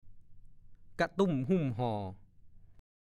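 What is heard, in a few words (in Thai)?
"กะตุ้มหุ่มห่อ" ภาษาโคราช; กะตุ้มหุ่มห่อ / 1. รักใคร่ ปรองดอง ช่วยเหลือ เกื้อกูล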